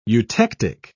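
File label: "eutectic", British English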